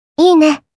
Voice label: Positive "Ene", Kawaii Voice

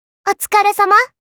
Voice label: Positive "Otsu", Kawaii Voice

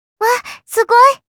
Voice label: "Wa Sugo", Kawaii Voice Reactions

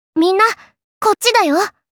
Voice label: "Kocchi", Kawaii Voice Commands

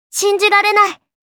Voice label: "Shinjirarenai", Kawaii Voice Reactions

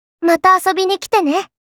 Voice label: "Mata Asobou", Kawaii Voice Greeting

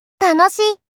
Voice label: Positive "Happy", Kawaii Voice

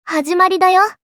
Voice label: "Hajimari", Kawaii Voice, Commands

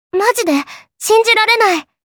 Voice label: "Maji", Kawaii Voice, Reactions

